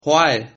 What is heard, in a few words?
stop, Sylt Frisian